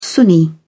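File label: "sunni", English, uk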